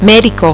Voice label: "medico", Spanish